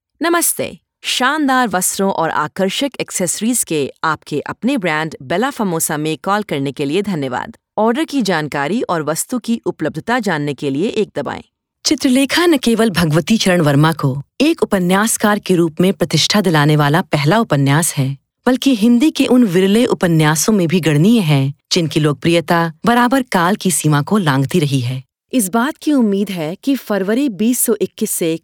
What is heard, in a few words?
Hindi